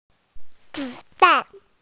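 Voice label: "bullet", Mandarin Chinese, female; zi3dan4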